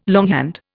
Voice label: "longhand", Indian